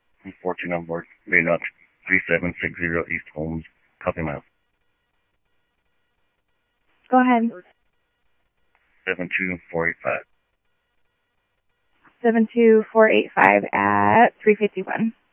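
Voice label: Mesa Police Department, scanner audio trunk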